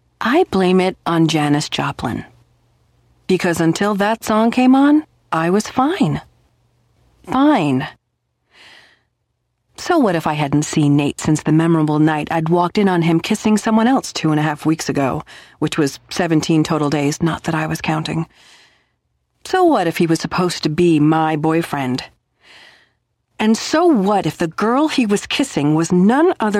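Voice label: female fiction, narrator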